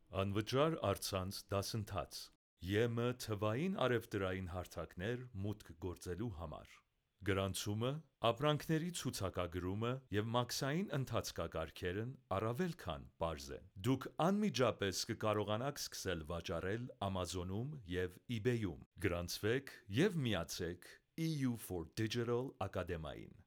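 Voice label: Armenian Commercial; GoLocalise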